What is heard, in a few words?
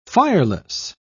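"fireless", British English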